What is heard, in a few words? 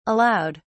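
"allowed", British English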